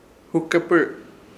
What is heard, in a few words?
"hukepɨr" mojarra, Ishir Chamacoco